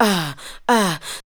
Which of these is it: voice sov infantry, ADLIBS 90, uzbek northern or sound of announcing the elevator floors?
ADLIBS 90